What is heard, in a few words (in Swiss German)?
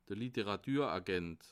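"literaturagent", Bas Rhin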